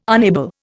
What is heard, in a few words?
"unable", Indian